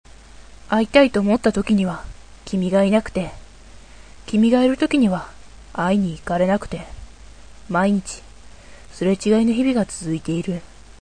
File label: girl